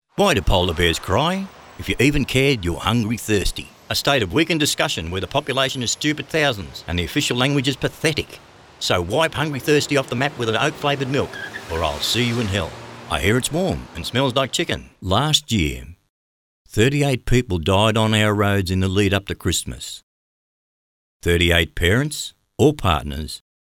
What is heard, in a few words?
Voice Over